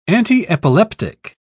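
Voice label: "antiepileptic", British English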